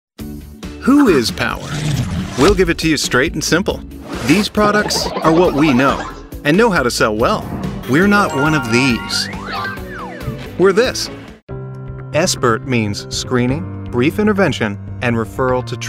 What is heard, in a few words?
Explainer